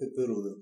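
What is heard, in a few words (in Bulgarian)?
butterfly desc bulgarian; insects; cartoon; tuxpaint-stamps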